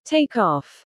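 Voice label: "take off", English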